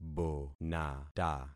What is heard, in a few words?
"bonata", concat syllables; Affixing experiment